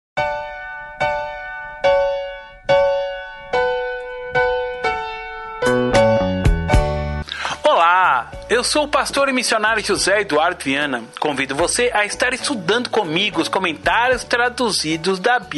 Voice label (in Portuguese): vinheta; Navegando pela Biblia